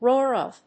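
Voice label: "roar of", English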